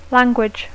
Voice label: "language", English